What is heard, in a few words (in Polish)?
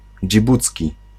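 "dżibucki", Polish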